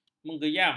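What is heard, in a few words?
"mengeyam", Malay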